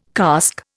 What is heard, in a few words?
"cask", Indian